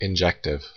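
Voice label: "injective", US English; pronunciations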